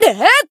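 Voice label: Voice attack, Japanese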